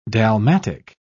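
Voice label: "dalmatic", British English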